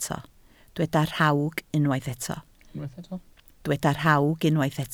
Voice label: "rhawg", Welsh

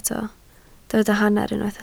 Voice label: "hanner", Welsh

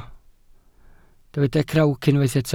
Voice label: "crawc", Welsh

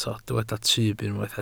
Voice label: "tyb", Welsh

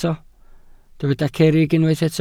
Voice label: "cerrig", Welsh

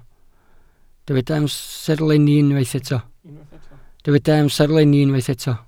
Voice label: "amserlenni", Welsh

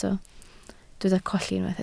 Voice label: "colli", Welsh

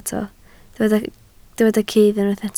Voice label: "cudd", Welsh

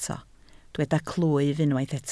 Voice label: "clwyf", Welsh

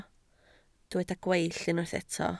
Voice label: "gweill", Welsh

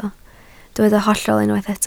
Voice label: "hollol", Welsh

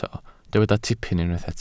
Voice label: "tipyn", Welsh

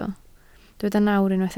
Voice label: "nawr", Welsh

